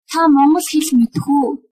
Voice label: do you speak, mongolian

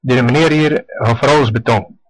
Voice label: pay, West Flemish

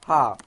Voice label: hello, Cornish; Hou Ha

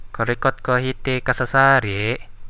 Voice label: do you come here often, Central Dusun

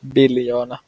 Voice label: trillion, Finnish

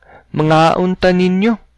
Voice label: bon appetit, cebuano

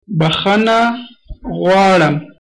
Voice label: excuse me, pashto

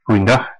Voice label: good afternoon, west flemish

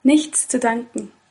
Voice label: you're welcome, german

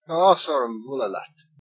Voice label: "meet", Irish

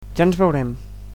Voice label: "goodbye", Catalan

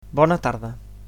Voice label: "good afternoon", Catalan

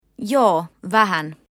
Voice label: yes a little, Finnish; Joo, vähän

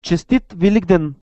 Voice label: happy easter, bulgarian

